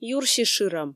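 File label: "юрси шырӧм", Komi, female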